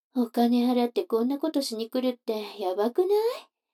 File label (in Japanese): 女の子 台詞, ASMR